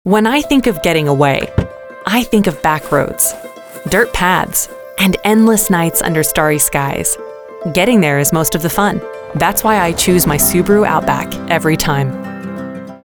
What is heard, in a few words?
Commercial; Subaru